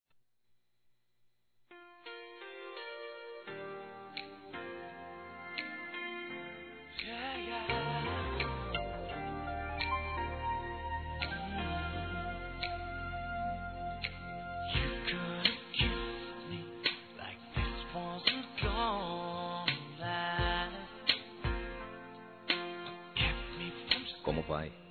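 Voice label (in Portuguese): paquera por telefone, masculino; Telemensagens; Conquistas Cantadas paqueras; Telemensagens 2017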